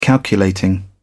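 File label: "calculating", English, British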